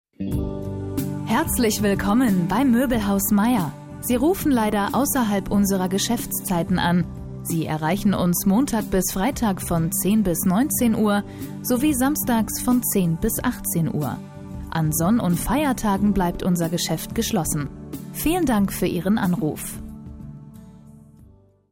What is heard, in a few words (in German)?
TELEFONANSAGE